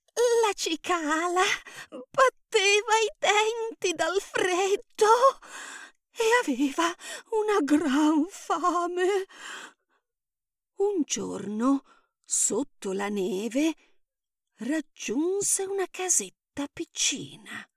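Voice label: Narration Kid Stories Italian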